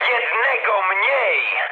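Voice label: player killed enemy mood med, speech Polish; War Thunder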